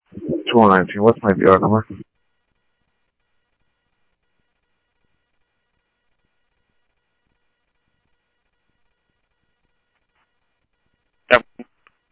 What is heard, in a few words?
PD Police, scanner audio